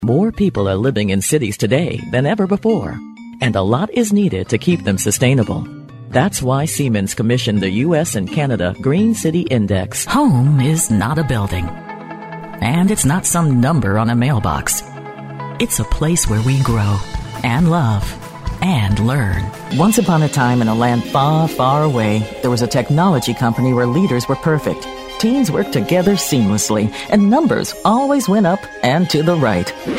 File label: narrative corporate, Narration, Corporate